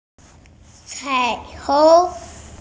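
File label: "cai3hong2", Mandarin Chinese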